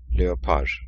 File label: Leopard in Turkish